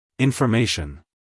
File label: "information", us male